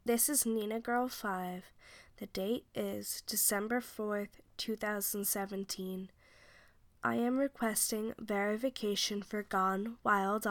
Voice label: verification, F